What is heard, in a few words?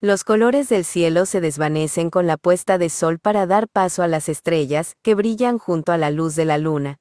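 Mexican Spanish; iOS Sounds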